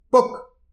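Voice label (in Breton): "pok", Breton; petit precis de prononciation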